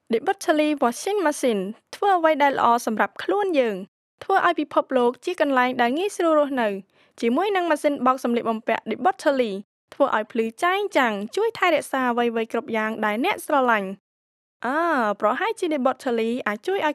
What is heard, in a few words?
Khmer